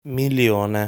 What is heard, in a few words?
"Milione", Italian